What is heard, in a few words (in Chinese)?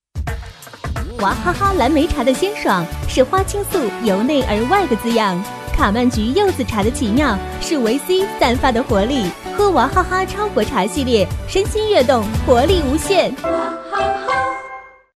girl, guanggao; Chinese; wahaha tianmeiyue